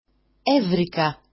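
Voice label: "evrika", Greek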